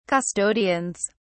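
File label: "custodians", British English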